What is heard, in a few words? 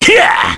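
Vox, Korean; Baudouin; kingsraid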